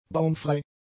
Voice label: "baumfrei", German; adjectives

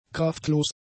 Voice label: "kraftlos", German; adjectives

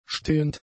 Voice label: "stehend", German; adjectives

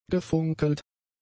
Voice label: "gefunkelt", german; adjectives

